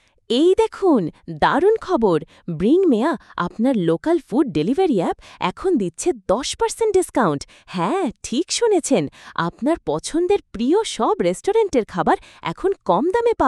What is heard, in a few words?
Bengali Voice